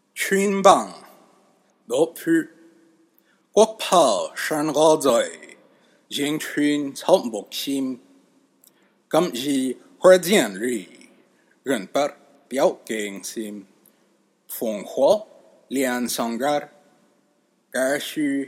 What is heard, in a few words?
Middle Chinese